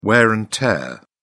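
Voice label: "wear and tear", British English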